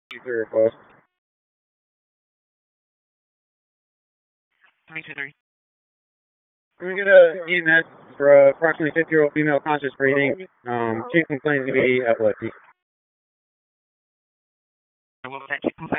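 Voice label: Raleigh Police Northeast District Dispatch, p25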